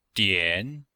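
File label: "dian3", chinese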